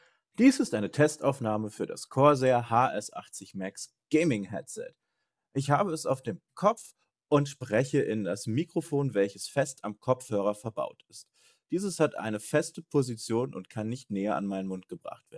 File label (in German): mikrofon; corsair hs80max